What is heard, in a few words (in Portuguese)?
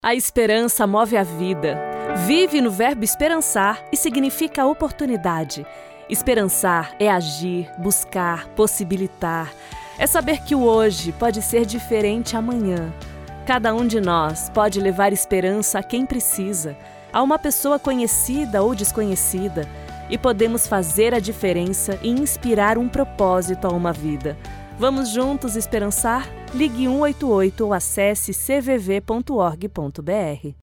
Voz Feminina; CVV; Esperancar